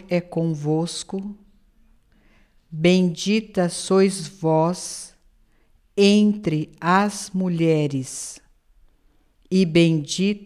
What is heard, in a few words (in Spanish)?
ave maria portugues lento; ave maria idiomas